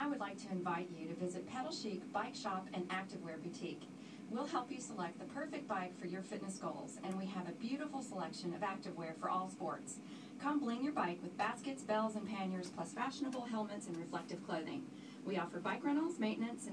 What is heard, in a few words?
radio commercial; pedal chic